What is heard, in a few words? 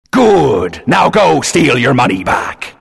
announcer purchase